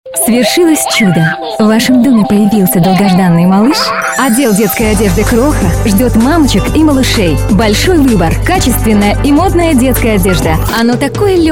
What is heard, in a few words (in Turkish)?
Kirgizca Kadin